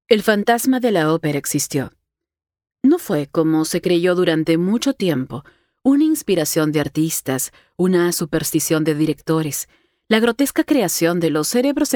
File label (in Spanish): audiolibro